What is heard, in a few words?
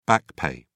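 "back pay", British English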